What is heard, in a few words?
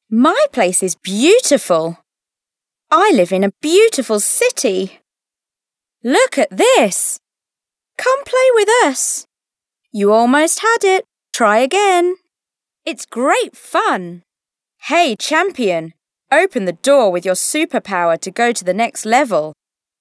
GB fem children